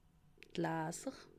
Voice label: "laaser", alsace

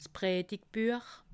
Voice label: "predigbuech", alsace

